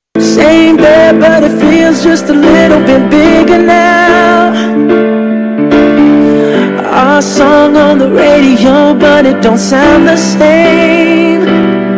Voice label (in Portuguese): TELEMENSAGEM BRONCA EM ESPOSO PÃO DURO VOZ FEM; duBroncas